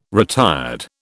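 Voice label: "retired", British English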